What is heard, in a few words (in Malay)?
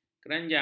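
"kerajang", Malay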